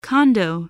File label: "condo", english